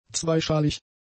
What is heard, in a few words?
"zweischalig", German; adjectives